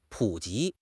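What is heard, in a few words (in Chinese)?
"普及", Chinese; HSK6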